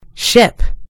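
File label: "ship", American English; gcompris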